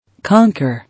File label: "Conquer", English